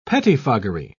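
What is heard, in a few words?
"pettifoggery", English, British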